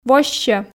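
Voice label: "воссьӧ", Komi, female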